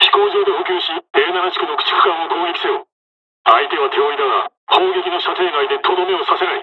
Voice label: dialogs japanese; War Thunder